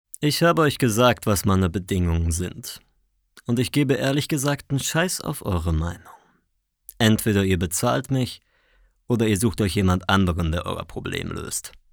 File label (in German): hoerspiel